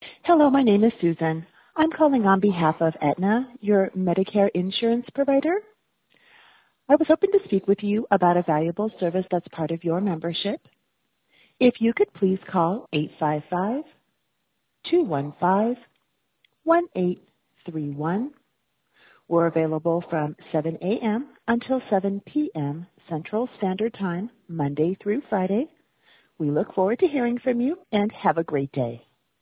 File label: phone msg